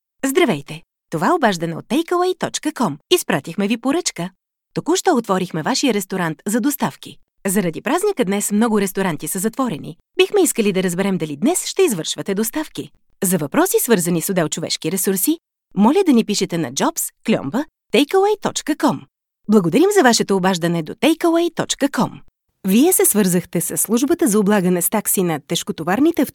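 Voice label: Bulgarian F IVR, female; voice over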